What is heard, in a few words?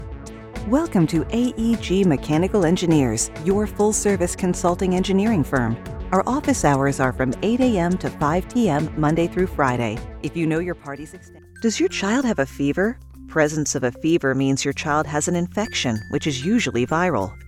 IVR telephony; Real human voice - no AI!